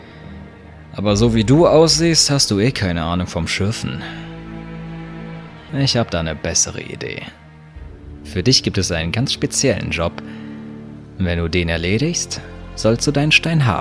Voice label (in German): Hoerspiel; Esteban